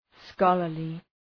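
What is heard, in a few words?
"scholarly", English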